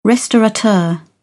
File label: "restaurateur", British English